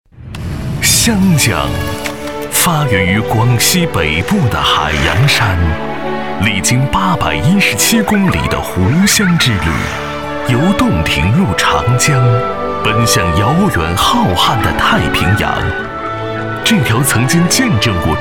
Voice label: 男 大气专题 城市局; 湖南长株潭城市局

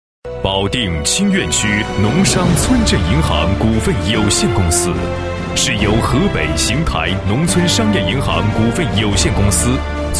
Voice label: 男 企业, 村镇银行宣传简介; 邢农商村镇银行

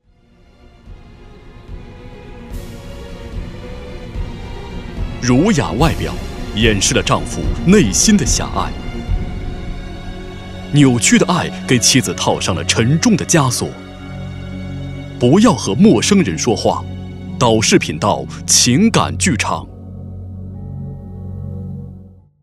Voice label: 男 频道包装, 国语男声配音; 大气专题; 《不要和陌生人说话》

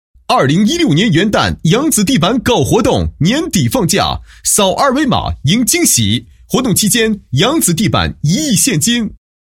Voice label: 男 促销广告; 元旦扬子地板促销

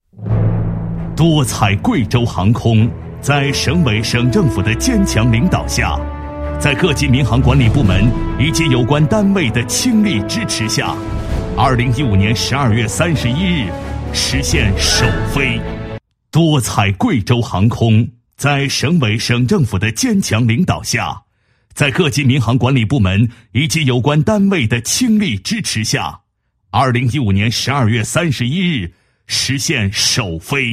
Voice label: 男 企业, 航空新大楼视频配音; 多彩贵州航空新大楼

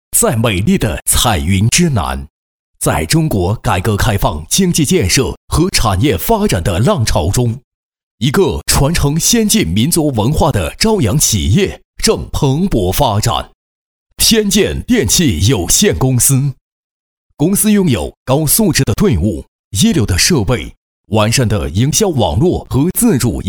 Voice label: 男; 天剑

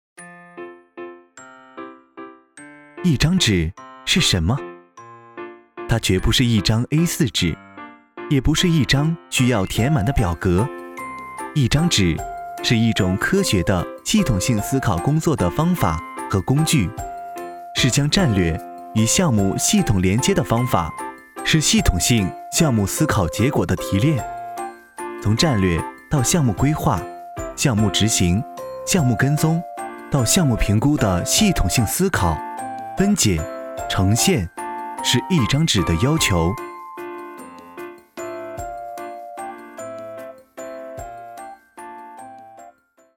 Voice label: 男, 广告; 一张纸

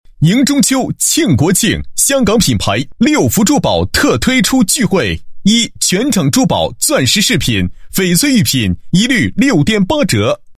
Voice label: 男 促销; 香港品牌宝六福珠宝特推出钜惠

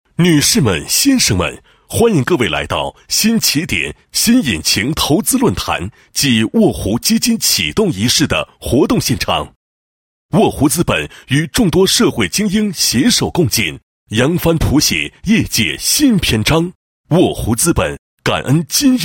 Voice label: 男 活动现场 仪式活动现场词; 某基金

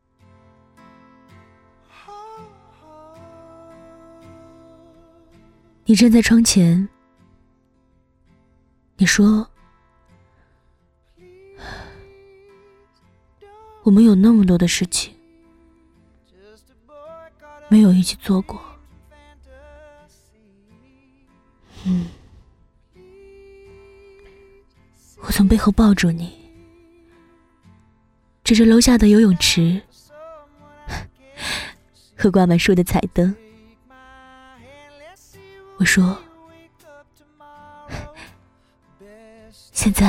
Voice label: 独白; 窗前

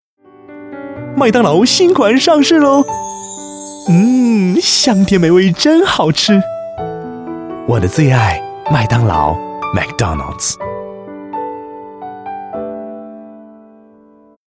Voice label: 英男 轻松自然; 麦当劳

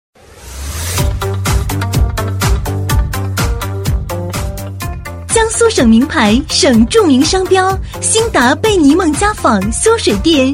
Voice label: 女, 促销, 国语女声; 兴达贝妮梦家纺

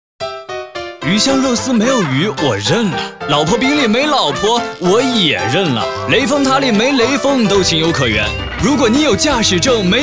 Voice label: 男-电台广告; 哈佛4s店

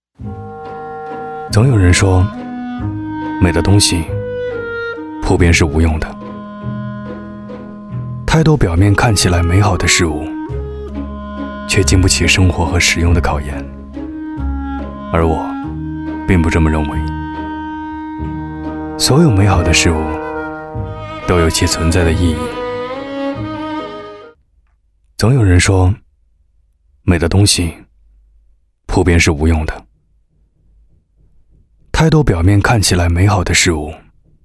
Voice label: 男 个性走心

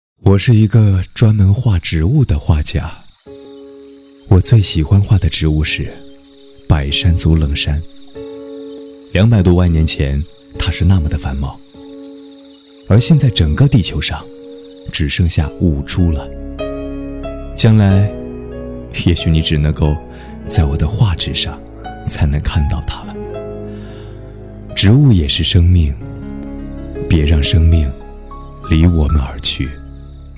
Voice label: 宣传片 讲述 品味 公益广告, 国语男声配音; 北京电台公益广告 植物画家的忠告; 大气沉稳

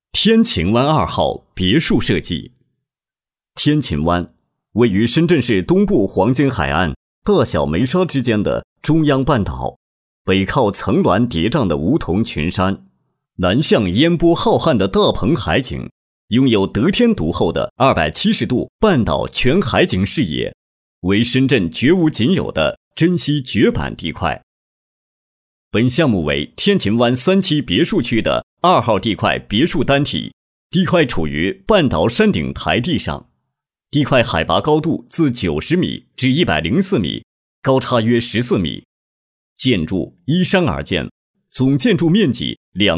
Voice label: 男 房产规划; 天琴湾

